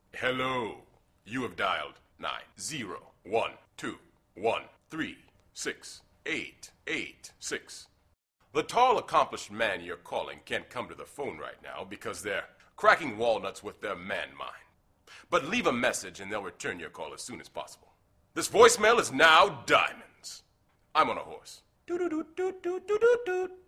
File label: voicemail